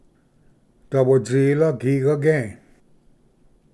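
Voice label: "dawatsila gigage", Cherokee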